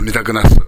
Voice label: "midagunasu", minamiyamagata

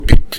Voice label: "bikki", minamiyamagata; 蛙。〈べっき〉とも。

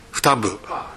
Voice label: "futabu", minamiyamagata

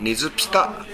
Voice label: "neppi", minamiyamagata

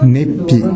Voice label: "neppi", minamiyamagata

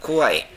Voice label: "kowae", minamiyamagata; 疲れる。体調不良。疲れて苦しい。

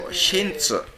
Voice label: "sentu", minamiyamagata

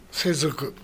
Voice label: "sezuku", minamiyamagata

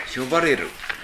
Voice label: "yobareru", minamiyamagata

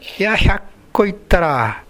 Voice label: "hyakkoi", minamiyamagata

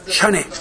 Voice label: "shane", minamiyamagata

